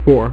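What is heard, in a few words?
"four", male; MacCribbage; InterStellar MacCribbage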